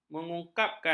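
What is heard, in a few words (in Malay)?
"mengungkapkan", Malay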